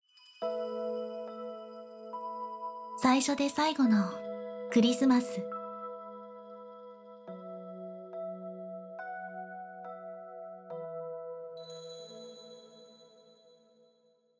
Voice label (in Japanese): タイトルコール; OPM Christmas Collection2023; 最初で最後のクリスマス